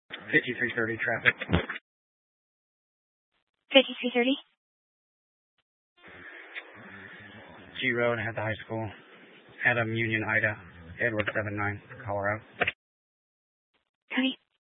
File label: Mesa County Sheriff Dispatch; scanner